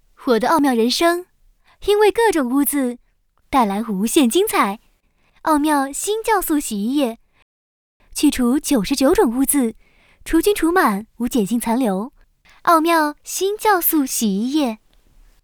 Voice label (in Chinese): 广告样音洗衣液